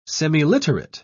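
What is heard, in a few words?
"semiliterate", English, British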